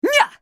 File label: effort; Katarina; Character Voices - English